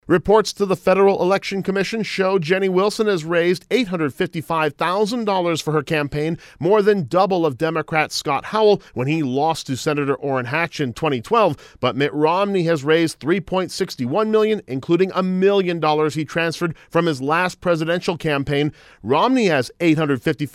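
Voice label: voice public service announcement; CAMPAIGN CASH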